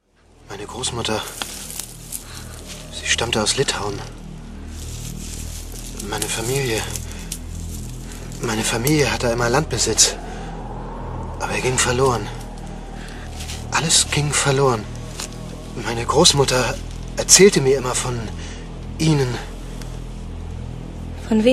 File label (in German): Hörspiel; Nachtexpress